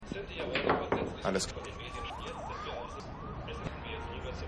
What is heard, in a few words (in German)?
TV Sprecher; Rescue Me